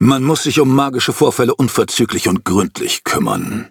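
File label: levelup, German; Cookie Run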